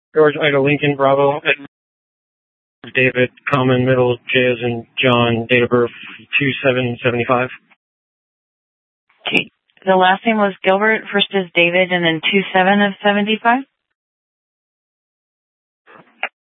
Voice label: Sheriff, scanner P25

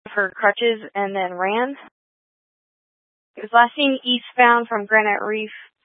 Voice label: Scottsdale Police, Simulcast

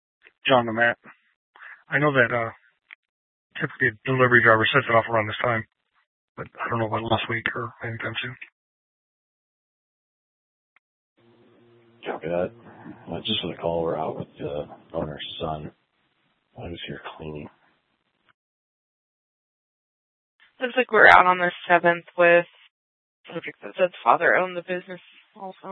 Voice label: Dispatch, scanner

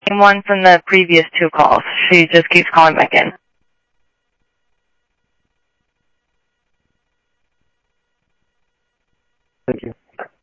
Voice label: Sheriff, scanner audio

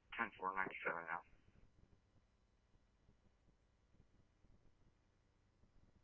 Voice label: scanner, Scottsdale Police

